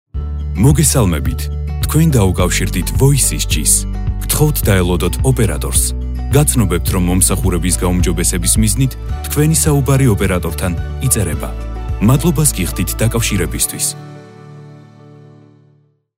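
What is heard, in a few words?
Georgian, male, IVR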